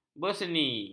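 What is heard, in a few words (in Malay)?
"berseni", Malay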